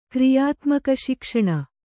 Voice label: "ಕ್ರಿಯಾತ್ಮಕಶಿಕ್ಷಣ", Kannada

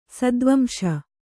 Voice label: "ಸತ್ವಾಂಶ", kannada

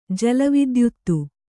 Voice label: "ಜಲವಿದ್ಯುತ್ತು", kannada

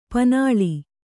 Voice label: "ಪಣ್ಣೆಲೆ", Kannada

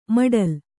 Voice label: "ಮೊಟಲೆ", kannada

